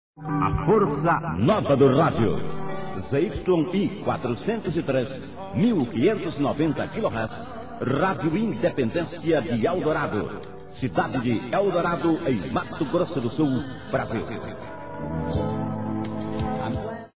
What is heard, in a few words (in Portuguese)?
chamada radio